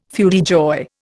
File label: "feu de joie", Indian